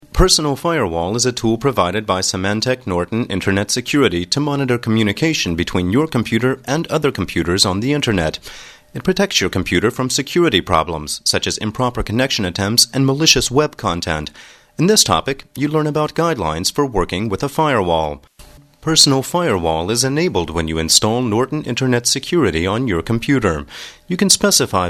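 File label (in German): English US Werbung Neutral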